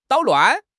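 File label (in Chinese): "捣乱", Chinese; HSK6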